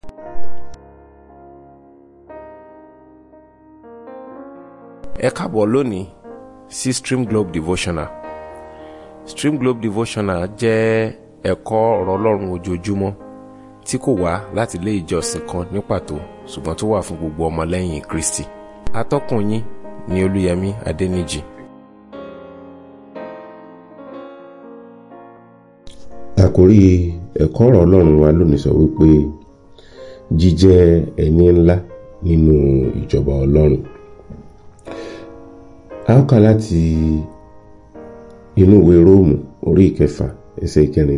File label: Devotional Audio Yoruba